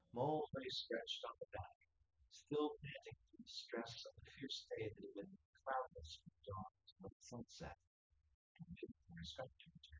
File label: male synthconv; SiSEC08; SiSEC underdetermined